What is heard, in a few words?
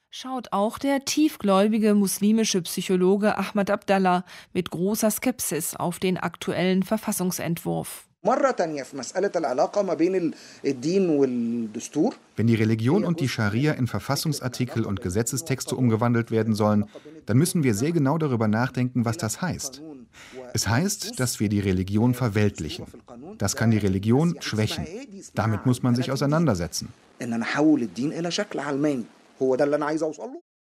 Voice Over